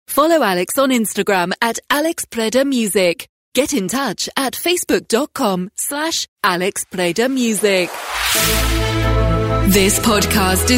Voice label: english british podcasts